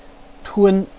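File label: "tun1", Chinese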